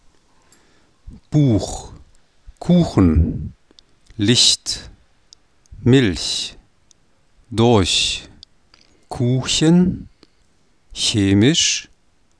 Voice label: tysk Buch Kuchen, tysk [bu:x ˈkʰu:xən lɪçt mɪlç dʊɐ̯ç ˈkʰu:çən ˈçe:mɪʃ]

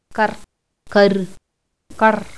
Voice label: toda kar

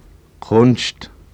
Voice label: sydtysk kunst, sydtysk [kxʊnʃt]; 7.3 Obstruenter

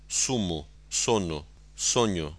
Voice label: portugisisk sumo; [ˈsumʊ ˈsonʊ ˈsoɲʊ]; 7.4 Sonoranter

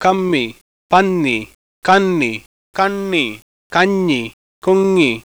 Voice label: malayalam; [ˈkʌmmi ˈpʌn̪n̪i ˈkʌnni ˈkʌɳɳi ˈkʌɲɲi ˈkuŋŋi]

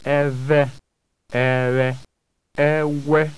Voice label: isoko, isoko [ɛ́vɛ́ ɛ̀ʋɛ̀ ɛ́wɛ́]

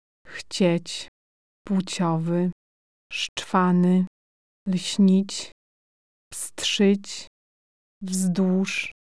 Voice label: polsk init; initialt; [xt͡ɕɛtɕ ˈpwt͡ɕɔvɨ ˈʃt͡ʃfanɨ lɕɲit͡ɕ pst͡ʃɨt͡ɕ vzdwuʃ]

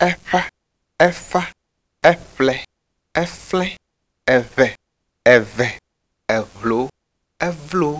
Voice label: ewe, ewe [éɸá éfá éɸle éflé èβè èvè èβló évlo]

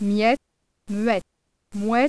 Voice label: fransk miette; [mjɛt mɥɛt mwɛt]

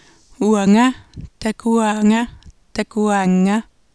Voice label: groenlandsk uanga; grønlandsk [uæŋæ tækuæ:ŋæ tækuæ:ŋ:æ]

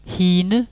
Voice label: dansk hine, dansk [ˈhi:nə]

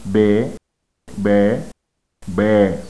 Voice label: badaga; [be: be˞: bẹ:]

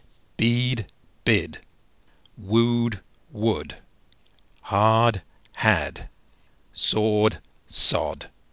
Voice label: engelsk bead bid; wooed, would; hard, had; sawed, sod

